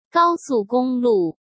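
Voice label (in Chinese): "高速公路", Mandarin Chinese; chinese flashcards; hsk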